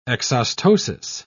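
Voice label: "exostosis", British English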